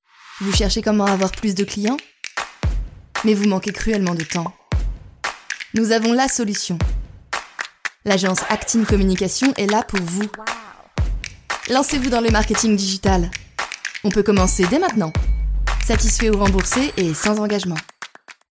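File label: musical advertisement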